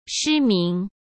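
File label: "失明", Mandarin Chinese; hsk; chinese-flashcards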